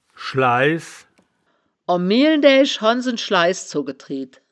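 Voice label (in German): "schleehs", wittlicher woerterbuch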